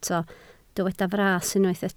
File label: "fras", Welsh